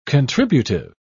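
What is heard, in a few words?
"contributive", British English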